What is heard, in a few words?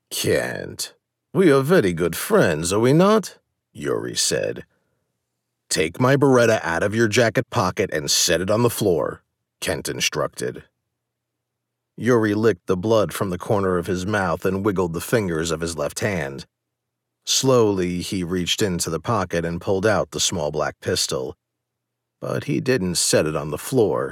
Spy Thriller Russian Accent Dialogue